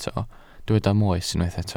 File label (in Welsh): "moes" Welsh